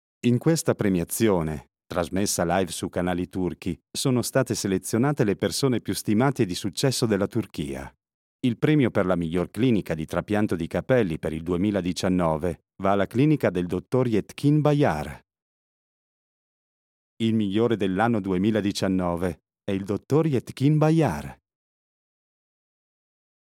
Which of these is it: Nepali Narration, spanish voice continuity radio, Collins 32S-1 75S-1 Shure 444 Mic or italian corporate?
italian corporate